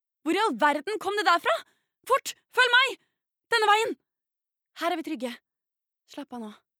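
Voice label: dub, Norwegian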